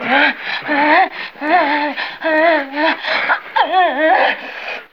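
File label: SEXVOX, sex voice; E-Lab; X Static Goldmine 1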